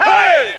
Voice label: hey, group of men